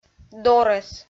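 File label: "дорӧс", Komi, female; kpv audio dict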